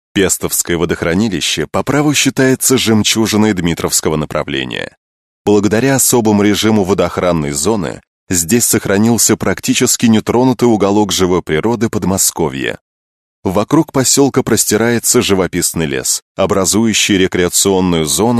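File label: Russian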